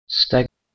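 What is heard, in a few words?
"steg", Proto-Indo-European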